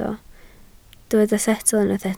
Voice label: "setl", Welsh

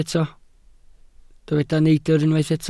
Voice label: "neidr", Welsh

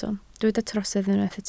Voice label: "trosedd", Welsh

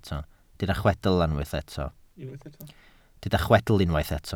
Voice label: "chwedl", Welsh

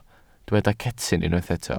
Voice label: "cetyn", Welsh

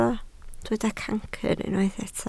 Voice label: "cancr", Welsh

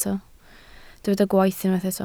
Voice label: "gwaith", Welsh

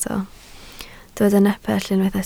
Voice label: "nepell", Welsh

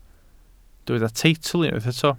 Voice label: "teitl", Welsh

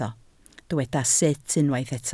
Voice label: "sut", Welsh

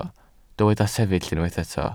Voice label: "sefyll", welsh

